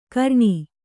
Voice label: "ಘೃಣಿ", Kannada

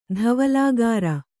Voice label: "ಧವಲಾಗಾರ", Kannada; dhavalāgārfa; = ಧವಳಾಗಾರ.

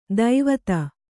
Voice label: "ಧಾವತಿ", kannada

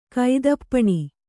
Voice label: "ಕುತ್ತುಪೆನ", Kannada

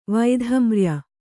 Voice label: "ವೈಧಮ್ರ್ಯ", Kannada

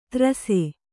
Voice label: "ತ್ರಸೆ", kannada

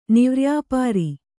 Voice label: "ನಿವ್ರ್ಯಾಪಾರಿ", Kannada

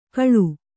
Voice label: "ಕಳು", Kannada

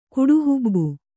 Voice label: "ಕುಡಿಹುಬ್ಬು", Kannada

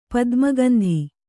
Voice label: "ಪದ್ಮಗಂಧಿ", Kannada

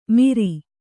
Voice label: "ಮಿರಿ", Kannada

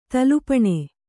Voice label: "ತುಲಾಪಾನ", Kannada

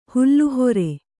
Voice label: "ಹುಲ್ಲುಹೊರೆ", Kannada

